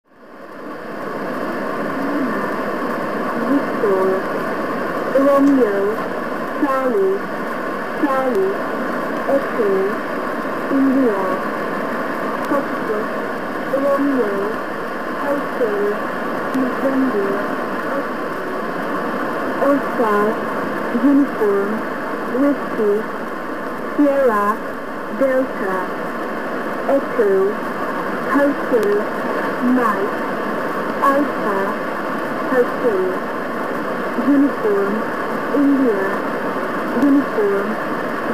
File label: 6840khz number radiostation